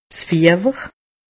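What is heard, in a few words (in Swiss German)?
"fiewer", Haut Rhin; medecine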